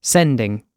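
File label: "sending", British English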